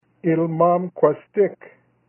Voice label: Baby Ilymaam Kwastik, Kumeyaay